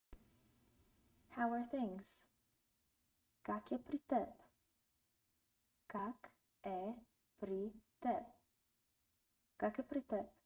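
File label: How are things, Bulgarian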